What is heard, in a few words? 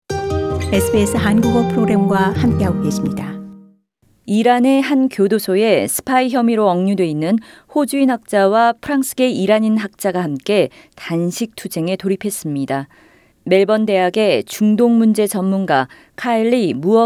korean; 이란 억류, 멜버른 학자 단식 투쟁 돌입; SBS Korean